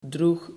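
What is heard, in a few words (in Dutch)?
"droeg", Dutch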